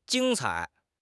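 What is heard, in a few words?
"jing1 cai3", Mandarin Chinese; HSK4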